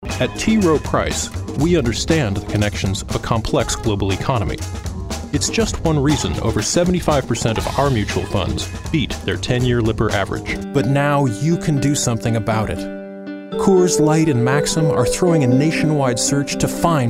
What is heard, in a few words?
Commercial